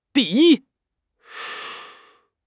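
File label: "di4 yi1", Chinese; HSK2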